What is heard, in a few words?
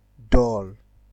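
"dull", Nigerian Pidgin, male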